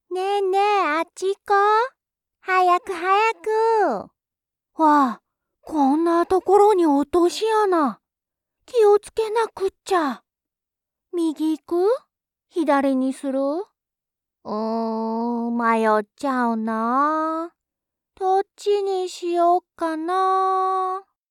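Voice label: Japanese, child; GoLocalise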